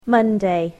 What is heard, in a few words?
"Monday", english